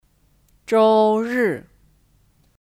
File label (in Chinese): "周日", Zhōu rì